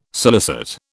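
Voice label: "scilicet", British English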